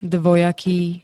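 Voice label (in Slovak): "dvojaký", Slovak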